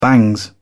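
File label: "bangs", British English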